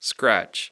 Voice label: "scratch", English

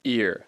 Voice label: "ear", English

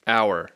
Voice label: "hour", English

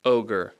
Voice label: "ogre", English

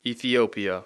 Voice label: "Ethiopia", English

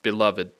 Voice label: "beloved", English; Speech Pro

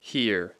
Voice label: "here", English

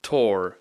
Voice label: "tore", English

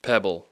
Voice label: "pebble", English

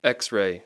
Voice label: "x-ray", English; Speech Pro; X (ks sound)

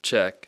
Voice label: "check", English, E short

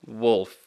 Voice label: "wolf", English

